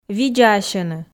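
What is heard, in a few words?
"видзаасьӧны", Komi, female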